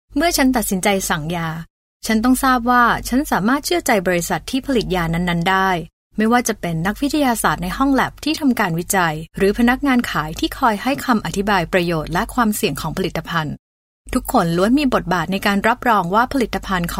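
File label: Thai, female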